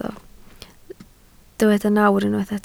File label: "nawr", Welsh